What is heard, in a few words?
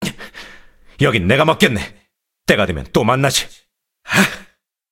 cinematic voice; lineage2